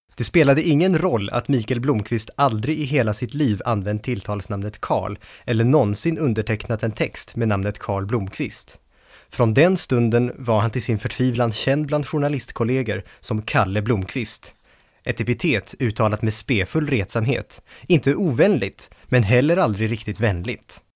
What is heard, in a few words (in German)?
Schweden M1, voiceactors